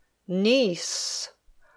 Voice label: "niece", English